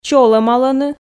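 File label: "чолӧмалӧны", Komi, female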